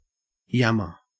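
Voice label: "yammer", Australian English; pronunciations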